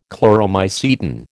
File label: "Chloromycetin", American